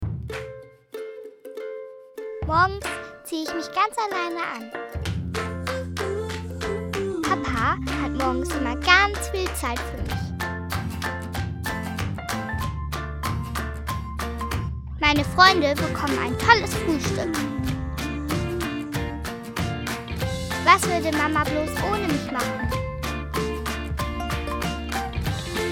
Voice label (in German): Werbung Imagespot; Toggolino